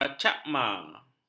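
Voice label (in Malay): "drachma", Malay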